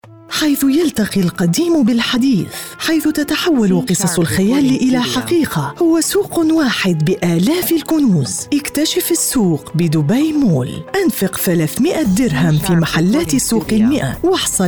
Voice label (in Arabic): Arabic Female